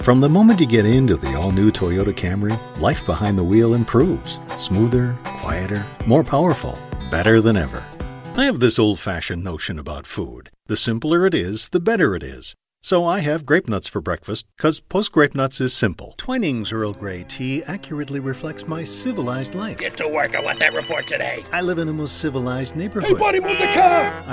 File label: Commercial